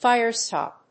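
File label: "firestop", English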